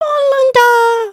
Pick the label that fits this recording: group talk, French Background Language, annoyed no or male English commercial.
group talk